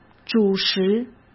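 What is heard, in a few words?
"主食", Mandarin Chinese; chinese-flashcards; hsk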